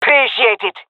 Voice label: thankful, jungle fem; vo; agents; Source Model Packs; Counter Strike Model Pack; CS2; jungle fem epic